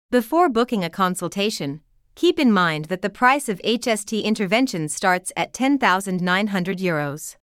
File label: Vocal english